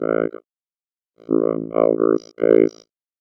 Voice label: VOICEFX ELCTR 140; Techno2